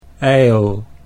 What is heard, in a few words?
"ijl", Dutch